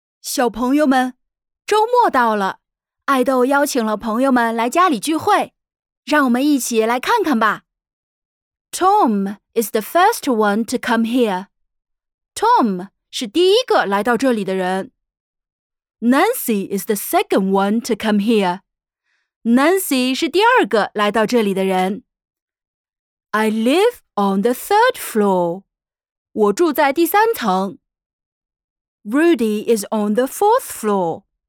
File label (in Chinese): 中英 少儿英语课件 男孩